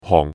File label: "hong4" Yue